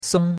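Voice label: "song1", Mandarin Chinese; Cours-de-Chinois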